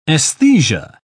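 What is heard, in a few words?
"aesthesia", English, uk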